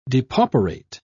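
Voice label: "depauperate", British English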